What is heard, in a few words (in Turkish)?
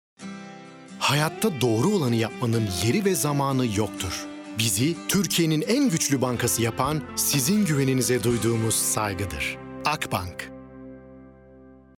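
kurumsal imaj, seslendirme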